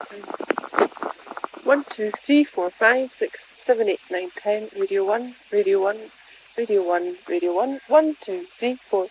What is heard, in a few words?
Scottish counting; BBC; Scanner